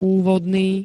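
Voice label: "úvodný", Slovak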